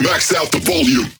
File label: Vocal Phrase Male "Volume"; bass house